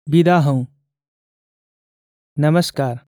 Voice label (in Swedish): nepali hej då; nepali språket